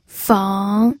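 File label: "feng", pinyin, third tone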